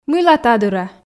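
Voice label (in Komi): мыйла та дыра, Komi, female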